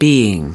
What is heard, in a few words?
"being", us